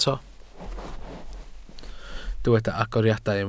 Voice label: "agoriadau", Welsh

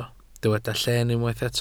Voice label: "llen", Welsh

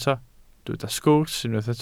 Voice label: "sgwrs" Welsh

